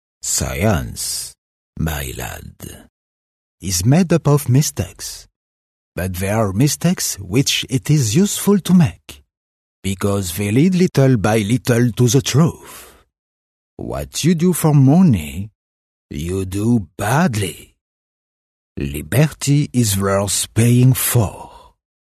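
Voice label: French, male, English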